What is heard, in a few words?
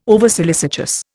"oversolicitous", Indian